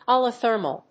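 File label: "polythermal", English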